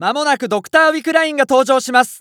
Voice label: Announce Wickeline Prep, JPN; Eternal Return

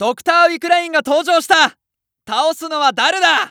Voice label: Announce Wickeline Appear, JPN; Eternal Return

